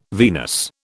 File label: "venous", British